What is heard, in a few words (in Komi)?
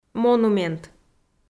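"монумент", Komi, female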